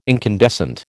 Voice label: "incandescent", American